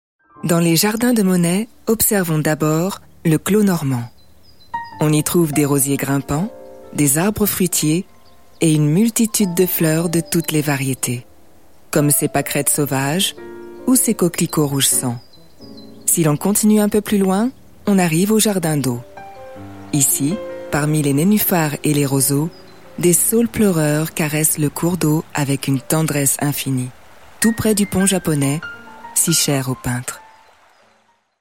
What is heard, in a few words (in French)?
audioguide